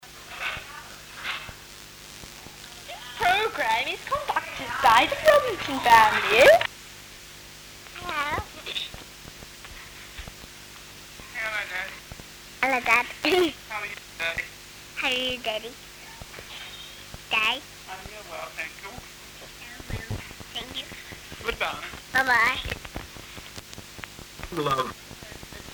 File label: kids and pa talking